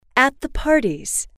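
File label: "at the parties", female, English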